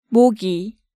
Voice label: "Mogi", Korean